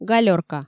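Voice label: "галёрка", Komi, female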